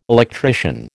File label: "electrician", American